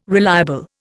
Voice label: "reliable", Indian